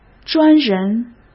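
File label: "专人", Mandarin Chinese; chinese flashcards; hsk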